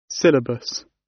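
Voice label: "syllabus", British; Study, education, research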